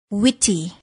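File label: "witty", English word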